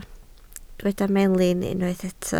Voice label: "melin", Welsh

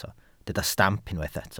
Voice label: "stamp", welsh

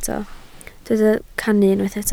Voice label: "cannu", Welsh

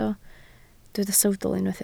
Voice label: "sawdl", Welsh

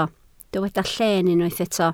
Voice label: "lleen", Welsh

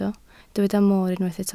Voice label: "mor", Welsh

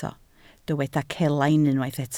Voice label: "celain", Welsh

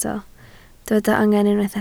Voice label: "angen", Welsh

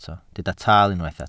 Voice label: "taal", Welsh

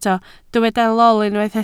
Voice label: "lol", Welsh

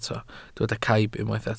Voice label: "caib", Welsh

